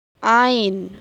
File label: ain, hijaiyah